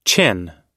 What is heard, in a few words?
"qin", pronounce